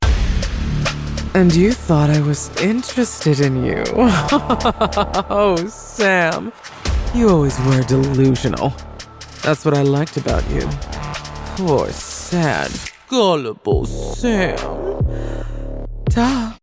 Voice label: femme fatale seductive vixen villain deep voice female succubus devil sultry